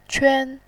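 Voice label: "quan", Mandarin Chinese, first tone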